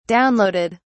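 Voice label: "downloaded", British English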